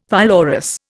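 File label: "pylorus", Indian English; Dictionary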